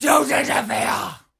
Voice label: Female Taunt, Cannibal, dialogue